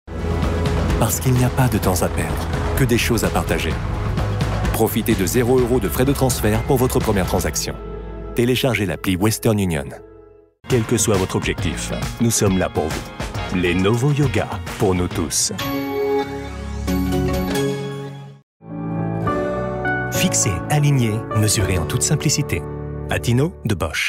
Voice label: commercial tv